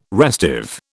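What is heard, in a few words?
"restive", British English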